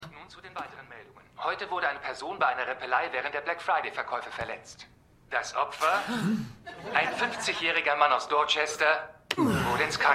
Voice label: TV Sprecher

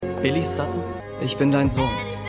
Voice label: Junger Mann; AtlantaMedical

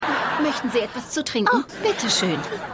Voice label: Flugbegleiterin; Will Und Grace

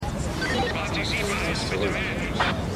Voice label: Funkstimme; Truth Be Told

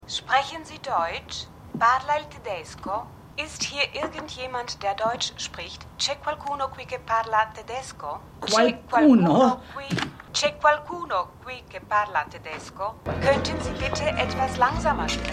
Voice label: Sprachkurs Sprecherin; AusgerechnetAlaska

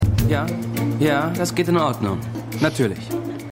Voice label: Junger Mitarbeiter; Christmas Inc

